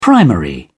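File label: "primary", British English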